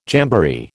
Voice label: "jamboree", American